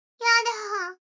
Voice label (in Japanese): 女の子台詞, ASMR